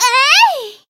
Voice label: attack jp; pom

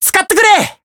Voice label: heal, jp

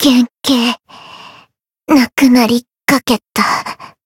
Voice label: win barely, jp; nagi

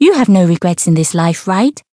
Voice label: Voice variable chant; niike

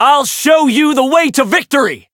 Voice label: variable chant

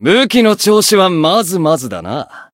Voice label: win, jp

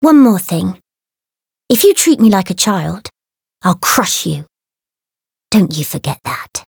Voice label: story

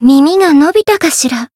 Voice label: growth, jp; radica

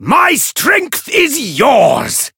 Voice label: variable chant; cyrus

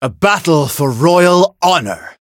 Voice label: chant; claude

